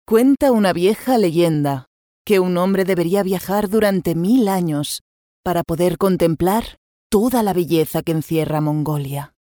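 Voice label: Spanish documentary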